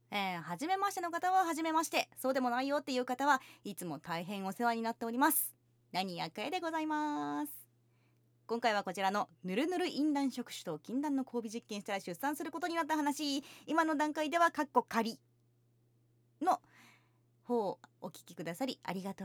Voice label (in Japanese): ふり～と～く, ASMR; 淫乱触手と禁断の交尾実験したら出産することになった話